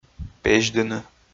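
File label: "пезьдыны", Komi, male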